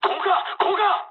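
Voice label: Japanese bailout mood low; War Thunder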